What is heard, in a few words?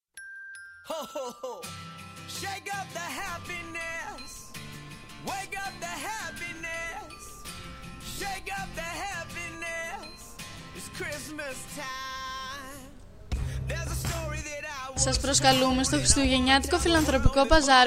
cristmas spot